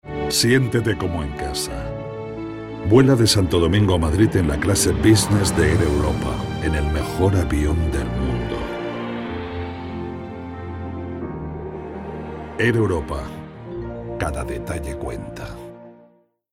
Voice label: male spanish airline comercial; ideasonora

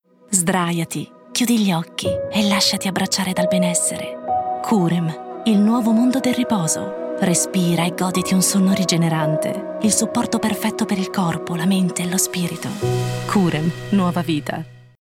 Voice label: female italian comercial; ideasonora

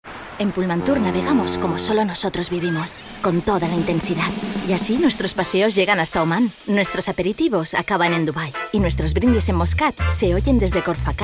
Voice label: female spanish travel comercial; ideasonora

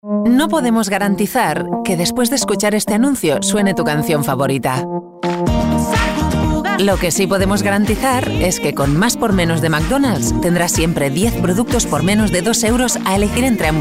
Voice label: female spanish food comercial; ideasonora

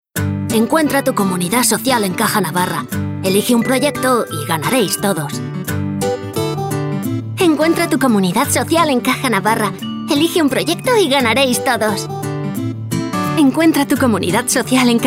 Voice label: female spanish kid voices; ideasonora

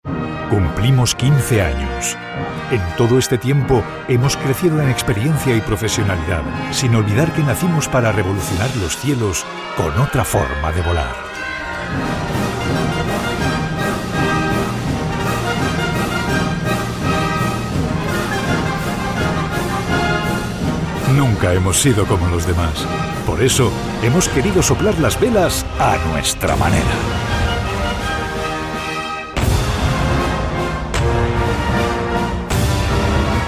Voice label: male spanish manifesto comercial; ideasonora

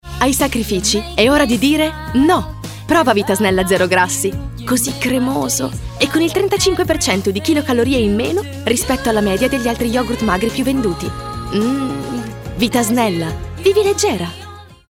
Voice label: female italian comercial

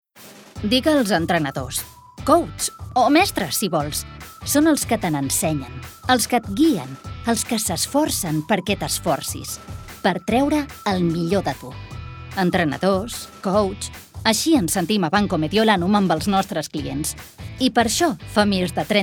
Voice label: female catalan comercial; ideasonora

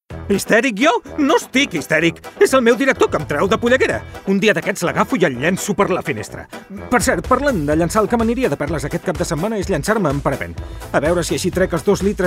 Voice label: male catalan events comercial; ideasonora

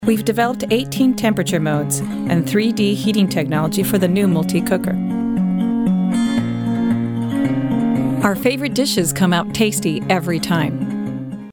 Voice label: female american english cook comercial

